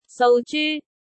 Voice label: "sou3 zyu1", cantonese